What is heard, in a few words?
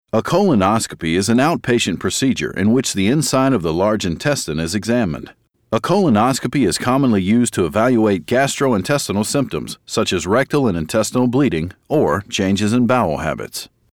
Medical Narration